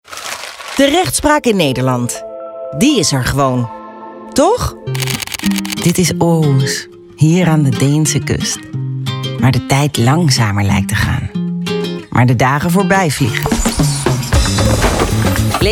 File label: voice over